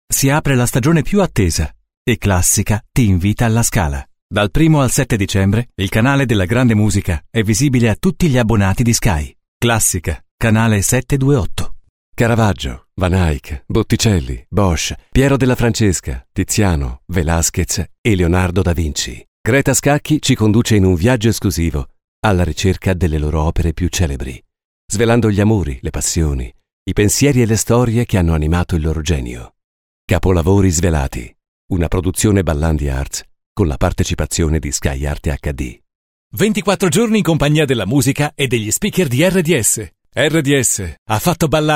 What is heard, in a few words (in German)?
IT Fremdtake Variabel